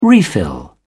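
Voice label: "refill", English, uk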